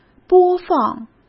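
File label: "播放", Mandarin Chinese; hsk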